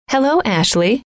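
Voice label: hello, female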